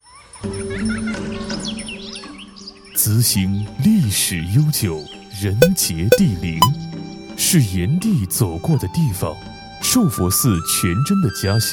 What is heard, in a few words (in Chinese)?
男 城市宣传 历史 传统; 资兴